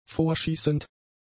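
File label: "vorschießend", German; adjectives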